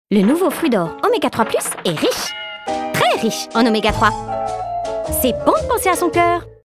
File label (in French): PUBLICITE voix vitaminee cartoon; FRUIT D OR OMEGA 3